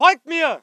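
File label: voice german infantry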